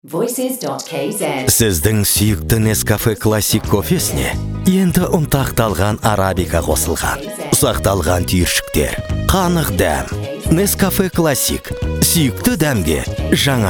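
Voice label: Kazakh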